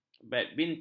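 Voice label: "badminton", Malay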